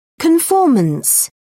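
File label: "conformance", British English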